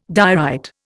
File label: "diorite", Indian